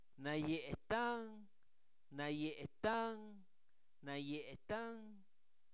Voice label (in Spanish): está doblado, Zapoteco, santo domingo albarradas; INALI; verbos